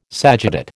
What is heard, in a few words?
"sagittate", American